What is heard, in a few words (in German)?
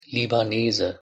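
"libanese", German; pronunciation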